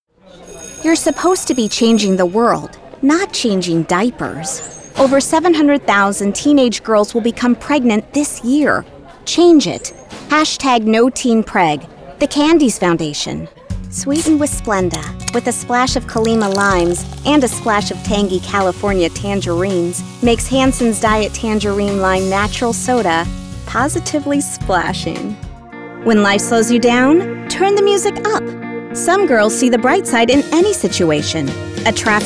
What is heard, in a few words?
vo reel